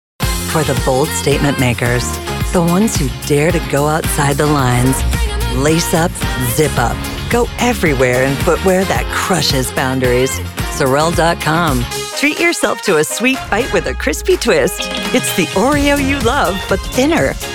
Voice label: Commercials

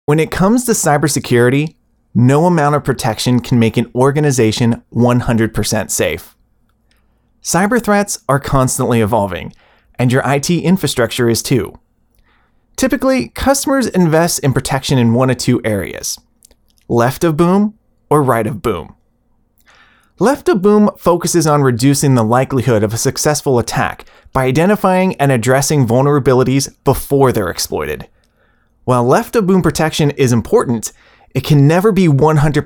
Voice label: Explainer Video - Slower VO; Alert Logic

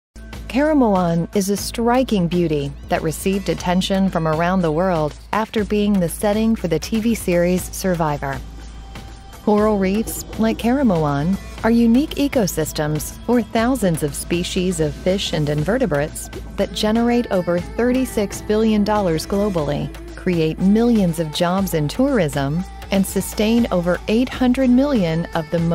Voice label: Narration

